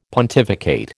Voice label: "pontificate", American